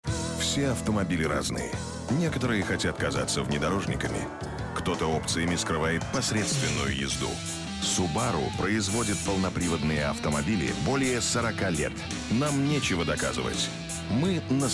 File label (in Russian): reklama; subaru